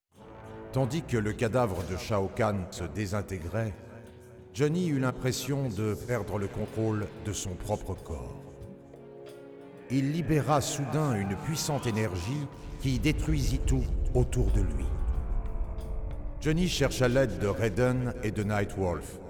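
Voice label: music voice over endings French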